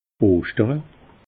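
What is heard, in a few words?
"oschtere", Alsatian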